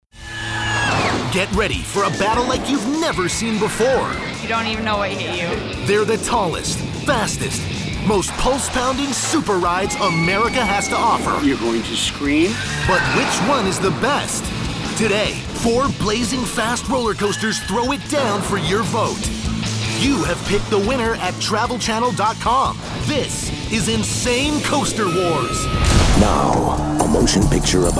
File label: Male, promos